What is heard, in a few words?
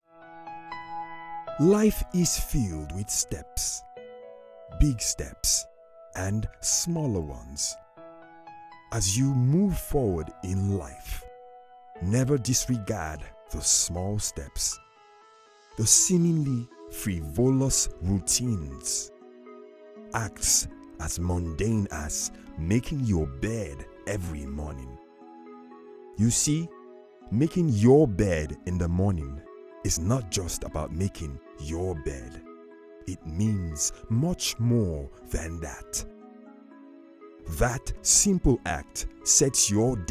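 Nigerian English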